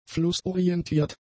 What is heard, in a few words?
"flussorientiert", German; adjectives